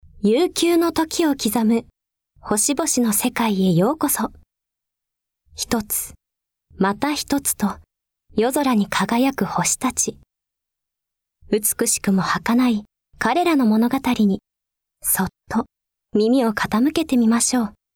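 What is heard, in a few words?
narration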